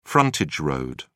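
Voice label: "frontage road", British English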